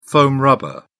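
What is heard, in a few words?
"foam rubber", British English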